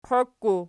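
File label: "qiokku" nominative, Archi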